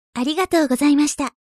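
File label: "arigato", Japanese; announce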